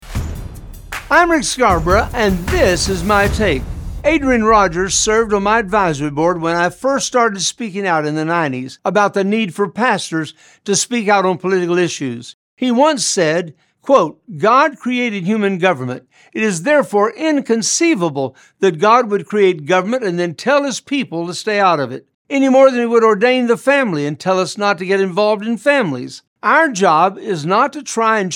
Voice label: Radio Spot; Speak Out Pastors